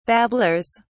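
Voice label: "babblers", British English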